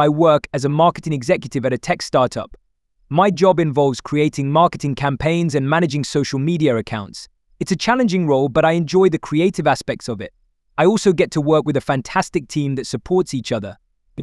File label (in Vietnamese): Cong viec Work